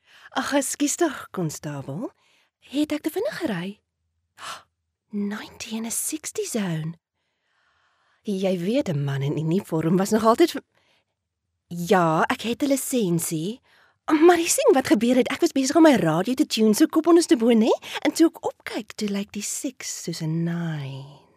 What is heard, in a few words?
afrikaans animation